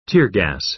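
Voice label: "tear gas", American English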